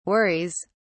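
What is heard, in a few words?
"worries", British English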